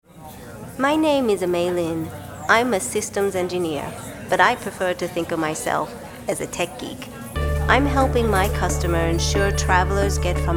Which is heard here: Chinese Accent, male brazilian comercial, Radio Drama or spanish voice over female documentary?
Chinese Accent